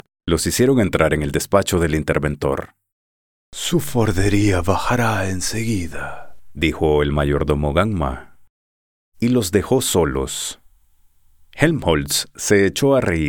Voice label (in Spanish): Audiolibro; Un mundo feliz